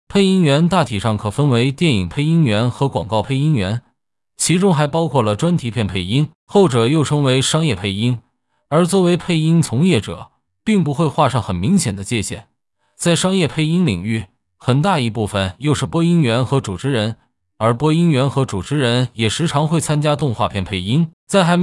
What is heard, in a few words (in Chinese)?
样本普通话